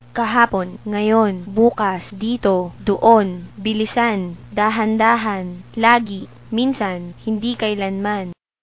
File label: filipino